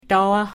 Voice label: "Daage", Pennsylvania Dutch

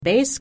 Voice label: "bees", Pennsylvania Dutch; e, ee, eeh, eh; ai in fail

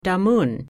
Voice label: "der Muun", Pennsylvania Dutch

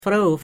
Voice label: "froh", Pennsylvania Dutch; o, oo,oh; o in so

